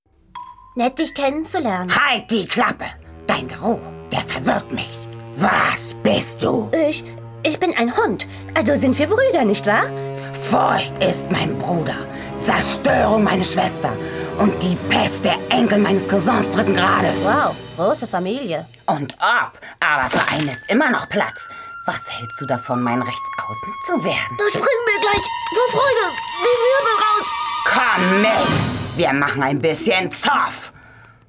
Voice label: synchron zeichentrick charge gutmuetig naiv

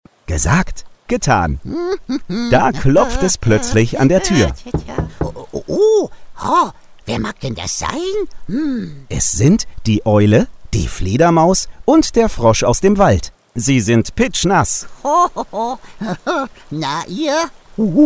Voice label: hoerbuch kinder der riese alle stimmen; Sprecher in Berlin, Frankfurt a. M., Hamburg, München, Nürnberg, Stuttgart

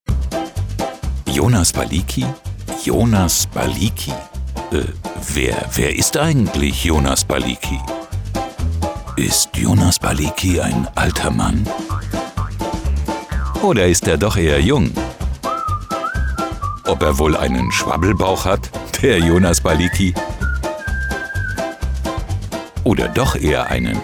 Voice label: erklaerfilm

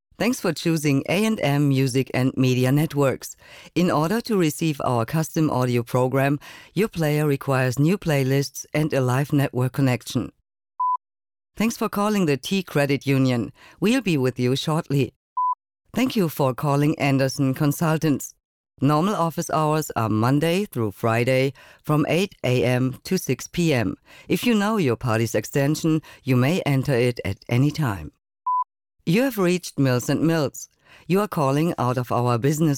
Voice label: Telefon Ansagen

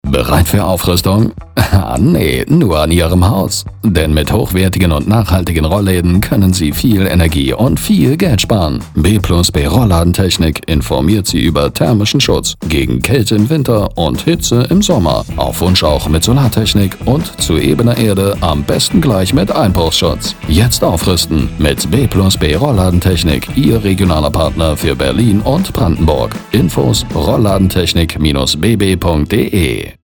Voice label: funkspot image; b b rollladentechnik wandlitz

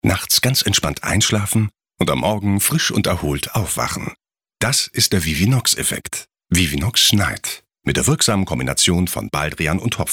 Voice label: spot werbung

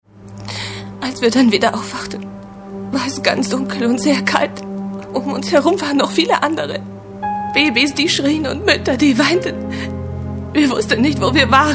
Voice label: mit Akzent

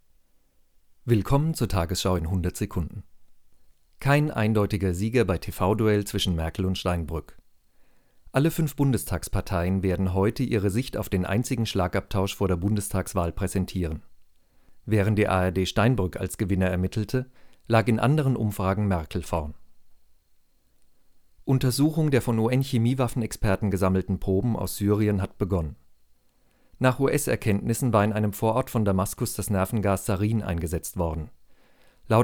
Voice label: nachrichten

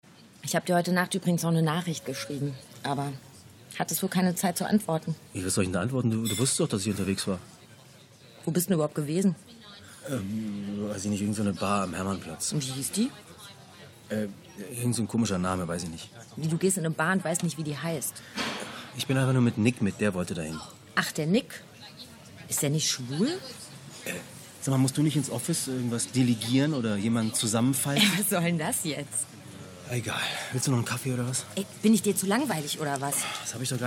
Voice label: radiohoerspiel; babyfon

